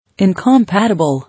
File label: "Incompatible", English